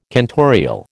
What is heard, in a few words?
"cantorial", American